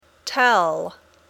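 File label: "tell", English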